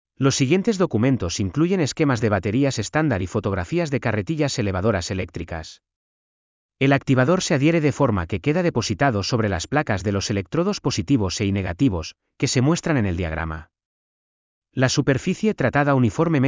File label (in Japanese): ナレーター スペイン語